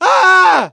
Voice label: hurt critical, voice; gambler